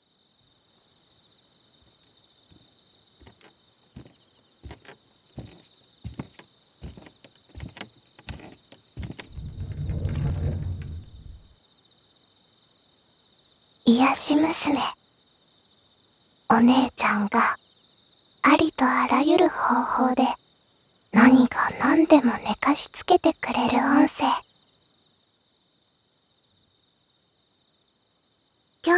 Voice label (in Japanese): お姉ちゃんが耳かきしながら寝かし付けてくれる